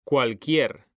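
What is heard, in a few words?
"CUALQUIER", Spanish; mekanta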